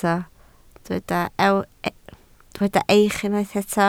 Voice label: "eich", Welsh

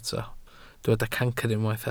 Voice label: "cancr", Welsh

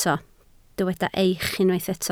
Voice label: "eich", Welsh

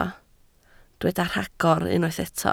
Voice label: "rhagor", Welsh

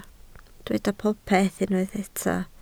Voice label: "popeth" Welsh

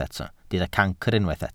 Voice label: "cancr", Welsh

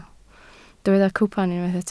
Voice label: "cwpan", Welsh

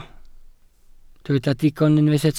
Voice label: "digon", Welsh

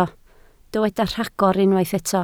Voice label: "rhagor", Welsh

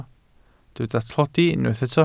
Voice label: "tlodi", Welsh

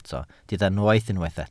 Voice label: "noeth" Welsh

